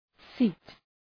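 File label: "seat", English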